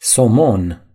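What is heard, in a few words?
"salmon", Romanian; gcompris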